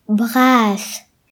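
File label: "tall", Breton; gcompris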